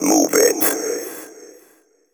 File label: techno male 140; Vocals